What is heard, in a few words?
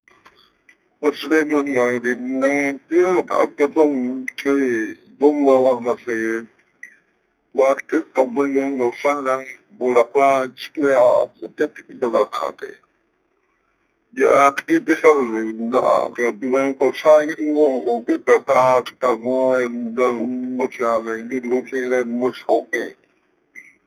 female voice